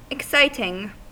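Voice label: "exciting", US English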